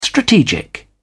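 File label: "strategic", British English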